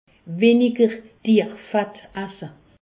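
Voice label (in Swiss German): "dierfett", Alsatian; diminuer les graisses animales; medecine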